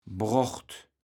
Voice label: "brocht", kaevels platt

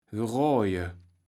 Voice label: "geroaje", kaevels platt

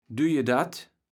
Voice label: "düj'edat", kaevels platt

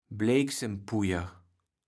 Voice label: "Bleksempujer", kaevels platt

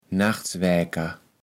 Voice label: "Nachswääker", Platt

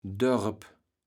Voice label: "Dörp", kaevels platt

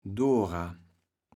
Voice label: "Dora", kaevels platt

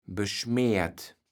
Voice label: "beschmärt", kaevels platt

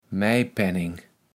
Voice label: "Mijpenneng", kaevels platt

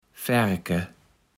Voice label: "Faerke", kaevels platt

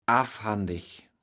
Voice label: "affhandig", kaevels platt

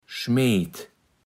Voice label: "schmeet", Platt

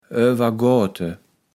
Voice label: "oawergoate", kaevels platt

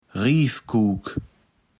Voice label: "Rievkuuk", Platt

